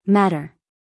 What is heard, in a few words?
"matter", US female; 1000 hours